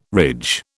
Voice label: "ridge", British

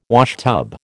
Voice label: "washtub", American

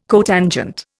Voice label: "cotangent", Indian

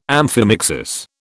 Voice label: "amphimixis", British

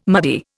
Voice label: "muddy", Indian

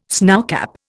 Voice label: "snowcap", Indian

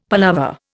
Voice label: "palaver", Indian; Dictionary

